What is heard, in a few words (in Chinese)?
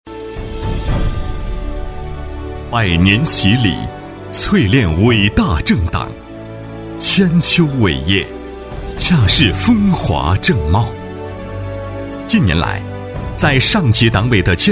男 宣传片 公安处 大气抒情; 重庆公安处; 厚重磁性 大气浑厚